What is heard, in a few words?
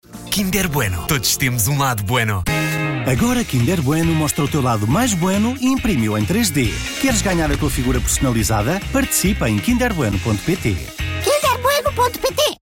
male portuguese comercial; ideasonora